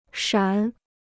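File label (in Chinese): "闪" Chinese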